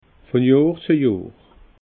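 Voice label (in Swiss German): "von johr", Alsatian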